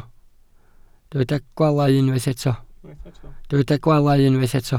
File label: "gwaalau", welsh